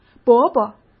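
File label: "伯伯", Mandarin Chinese; chinese flashcards; hsk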